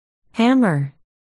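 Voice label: "hammer", spoken word, articulation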